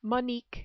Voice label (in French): "Monique", French